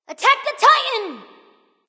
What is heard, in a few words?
vox attack m; smite; cupid